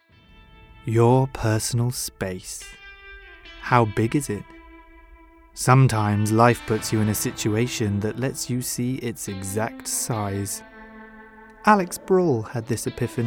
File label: male british english outlet comercial